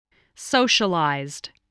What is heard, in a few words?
"socialized", English voice